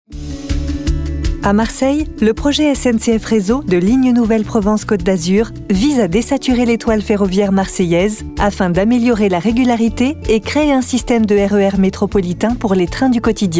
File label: Film corporate; SNCF